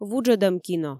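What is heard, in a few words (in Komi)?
"вуджӧдӧм кино", Komi, female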